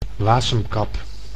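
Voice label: "wasemkap", Dutch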